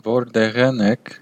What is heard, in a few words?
where from, armenian western